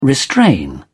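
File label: "restrain", English, British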